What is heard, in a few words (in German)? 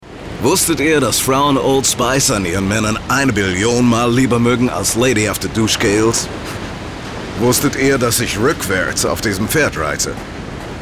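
Werbung Neutral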